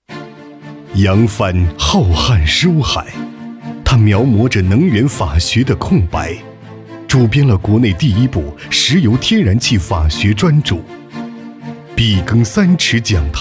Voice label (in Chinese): 颁奖·稳重品质; 颁奖·稳重品质·四大法治人物